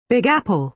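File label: "big apple", British English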